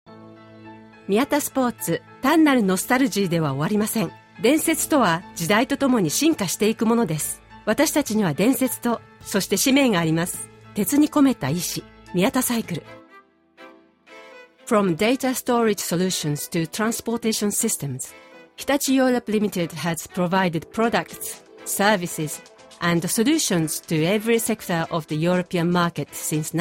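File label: Japanese, general; GoLocalise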